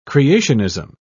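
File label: "creationism", English, British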